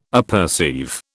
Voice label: "apperceive", British

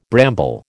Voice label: "bramble", American

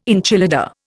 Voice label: "enchilada", Indian

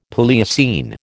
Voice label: "Palaeocene", American

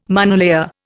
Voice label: "monolayer", Indian

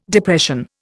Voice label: "Depression", Indian

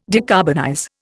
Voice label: "decarbonize", Indian

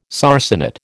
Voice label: "sarcenet", American

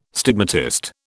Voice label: "stigmatist", British

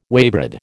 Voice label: "waybread", American

